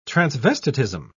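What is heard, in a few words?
"transvestitism", British English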